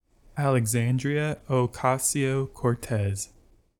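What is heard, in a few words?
"Ocasio-Cortez", US English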